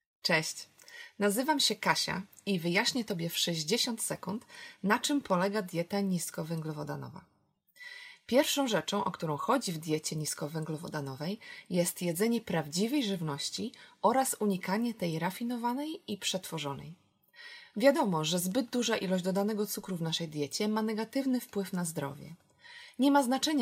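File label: Polish, General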